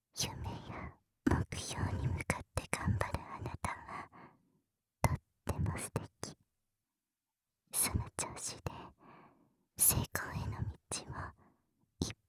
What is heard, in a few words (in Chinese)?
全肯定轻语音声, ASMR